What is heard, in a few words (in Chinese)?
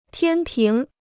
"天平", Chinese